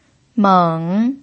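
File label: "meng", pinyin, third tone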